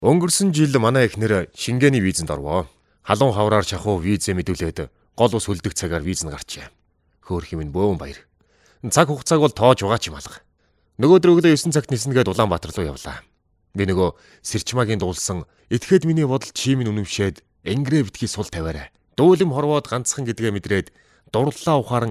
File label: Dubbing